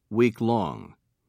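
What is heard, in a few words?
"weeklong", British English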